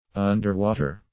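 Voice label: "underwater", English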